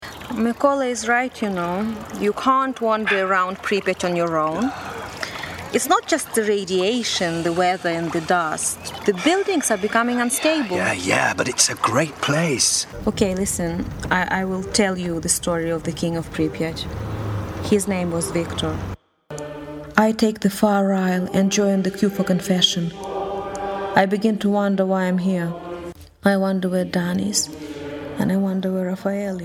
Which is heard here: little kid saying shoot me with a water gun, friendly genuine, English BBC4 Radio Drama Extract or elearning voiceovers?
English BBC4 Radio Drama Extract